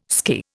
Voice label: "skirr", Indian